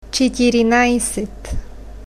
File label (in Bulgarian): chetirnajset, bulgarian, numbers